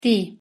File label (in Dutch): "tī", Maori; thee-in-andere-talen